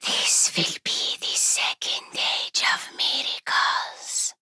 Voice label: Whisp; outlast